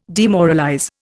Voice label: "demoralize", Indian